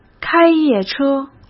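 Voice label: "开夜车", Mandarin Chinese; chinese-flashcards; hsk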